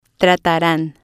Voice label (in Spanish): "trataran", spanish